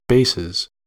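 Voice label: "bases", US English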